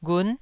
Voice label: "ghun9", Taiwanese syllable

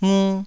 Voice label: "ngu2", taiwanese syllables

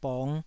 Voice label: "bong5", taiwanese syllable

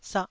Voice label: "sah", Taiwanese

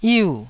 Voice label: "yiu4", taiwanese Syllables

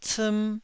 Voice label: "ciim1", taiwanese

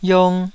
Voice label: "yiong2", taiwanese Syllables

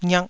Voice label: "ngiak2", taiwanese syllables

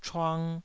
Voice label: "chuang2", taiwanese

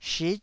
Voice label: "shit9", taiwanese

